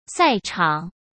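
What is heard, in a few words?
"赛场", Mandarin Chinese; hsk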